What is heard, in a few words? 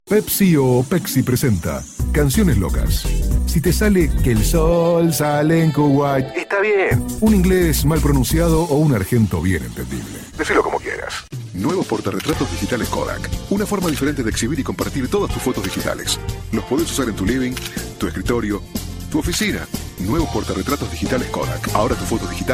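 Spanish Argentina, male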